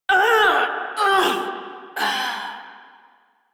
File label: Death, Female; Railjack Crew; Steel Meridian